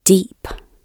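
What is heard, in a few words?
"deep", British English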